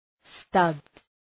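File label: "stubbed", English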